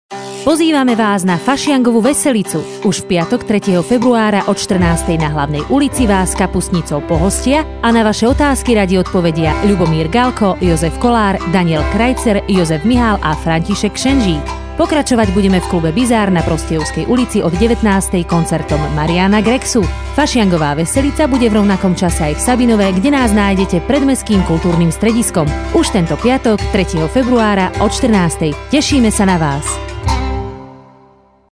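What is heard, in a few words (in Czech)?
reklami spot; fasiangovaveselica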